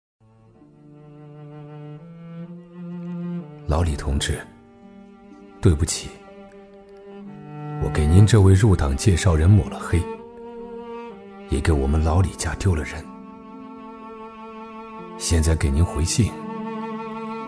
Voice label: 男 忏悔录 走心自述; 中英双语; 大气浑厚

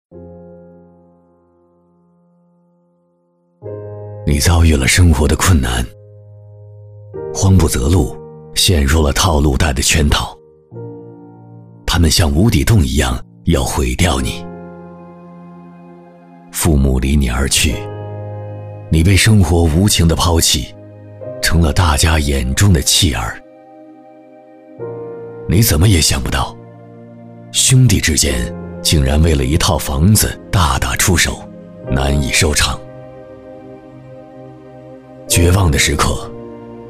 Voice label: 男 公益广告 磁性走心; 高端品质; 沉稳大气

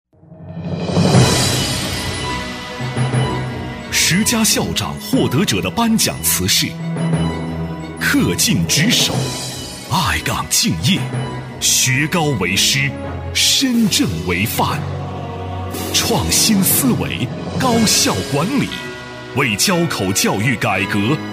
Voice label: 男 大气 沉稳 颁奖类; 高端磁性; 大气浑厚; 感动中国

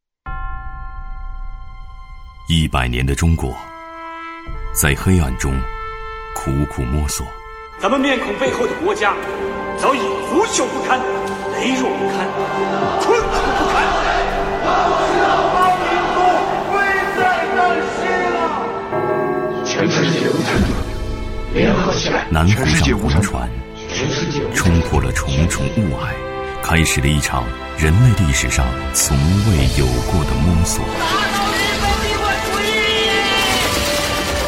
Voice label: 男 纪录片; 公益：百年华诞 风华正茂; 高端大气 质感磁性

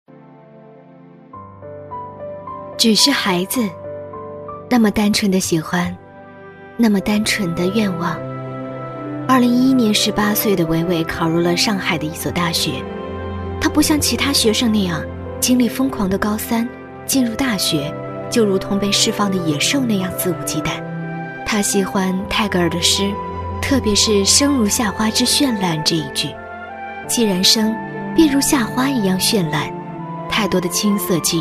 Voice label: 女 人物专题 深情; 深情感人; 感动中国人物

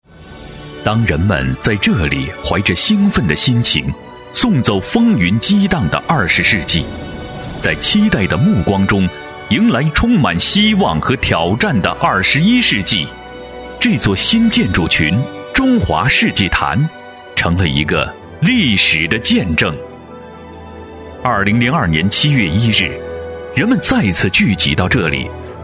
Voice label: 男 纪录片 议论型; 稳重底蕴; 大气浑厚; 走近新时代

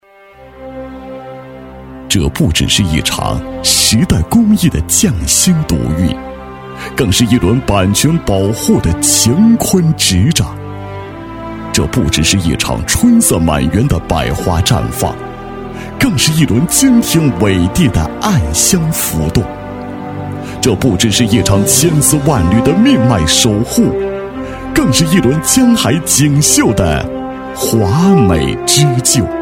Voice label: 男, 厚重大气 大气浑厚; 守天地经纬

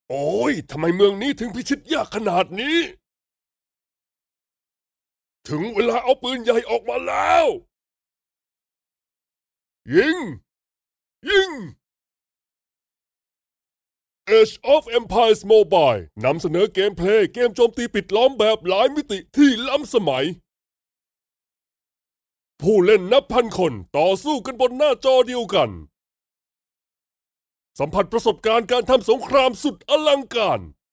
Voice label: 泰语男声 信息流游戏

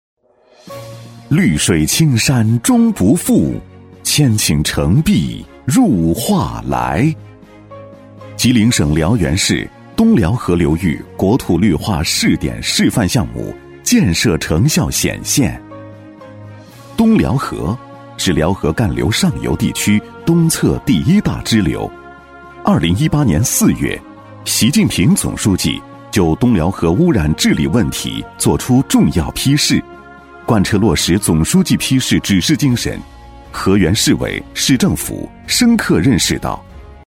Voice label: 男 项目介绍 磁性厚重; 吉林省东辽河国土绿化

